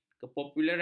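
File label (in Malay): "kepopularan", Malay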